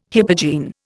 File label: "hypogene", Indian